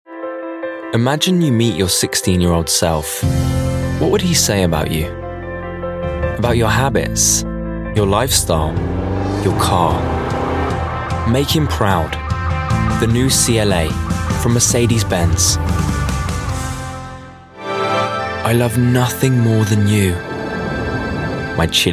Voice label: commercial, young dynamic mixed-race voice-over artist